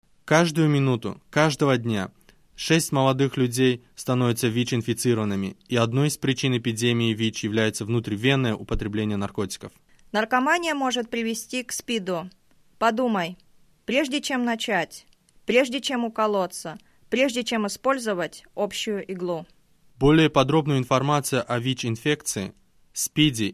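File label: spot russian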